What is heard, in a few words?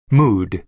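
"mood", American English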